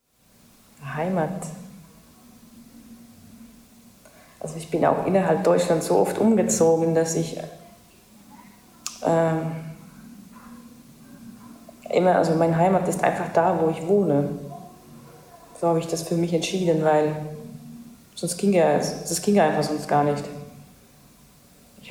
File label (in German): estnisch